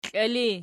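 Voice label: "kiili" nominative, Archi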